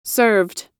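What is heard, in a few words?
"served", US English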